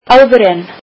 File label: icelandic before